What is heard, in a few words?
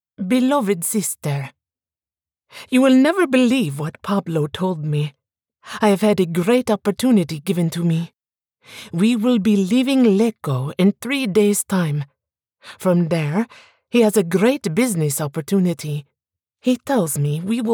ACCENT ITALIAN epistolary narrative